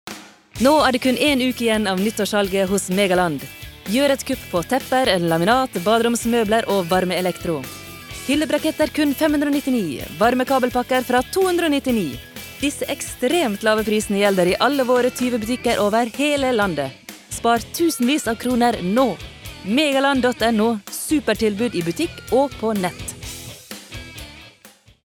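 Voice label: no hard sell sunnmore, Norwegian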